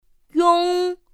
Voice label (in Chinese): "yōng", pinyin; pinyin2; pinyinji